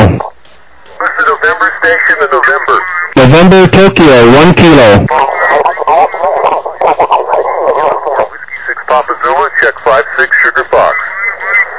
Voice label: USB, 21MHz

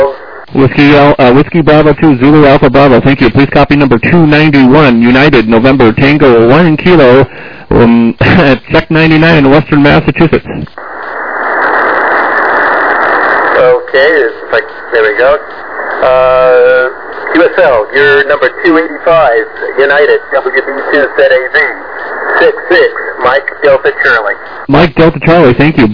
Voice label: SSB LSB 7MHz

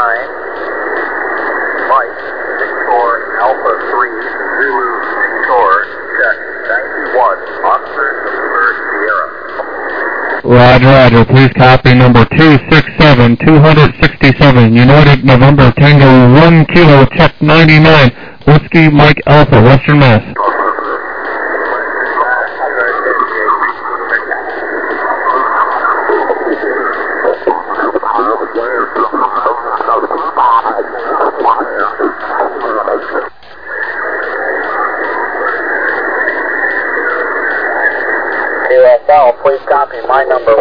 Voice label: SSB USB 14MHz

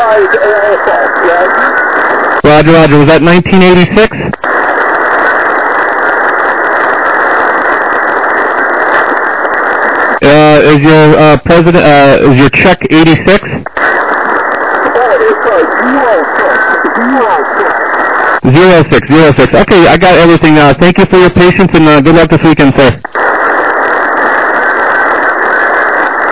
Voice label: SSB LSB 7MHz

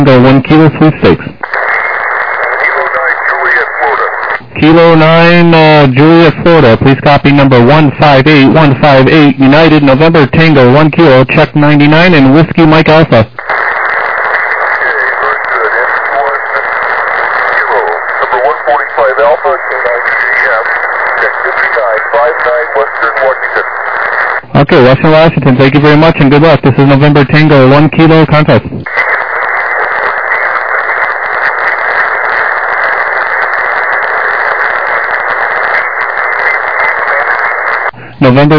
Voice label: USB 21MHz

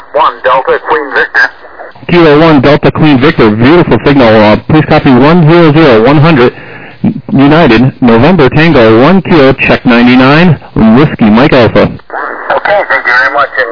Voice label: SSB LSB 7MHz

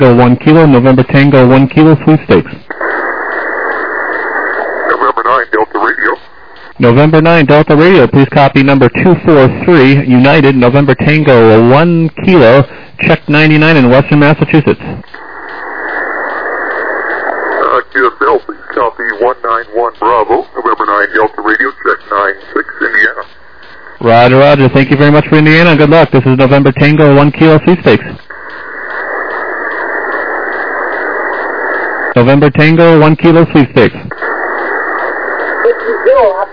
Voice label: SSB USB 14MHz

